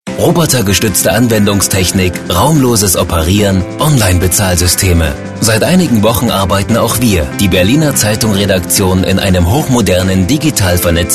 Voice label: german voice over male narrator